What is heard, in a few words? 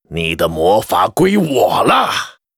yes attack zh; Spellbreaker